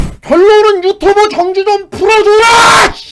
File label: scout battle cry